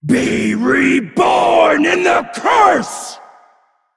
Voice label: Female Dragon Play